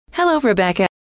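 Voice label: hello, female; trainer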